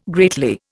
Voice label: "greatly", Indian